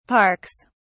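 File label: "parks", British English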